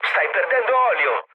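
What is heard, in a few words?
Italian damaged oil mood low, speech; War Thunder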